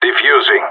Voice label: defusing bomb, radio; GSG9

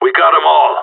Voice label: no enemies left, radio; GSG9

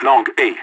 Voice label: longa, radio; LEET

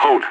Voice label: hall, radio; LEET

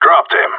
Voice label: enemy down, radio; GSG9

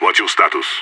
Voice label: request report, radio; SAS

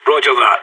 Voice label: affirmative, radio; SAS

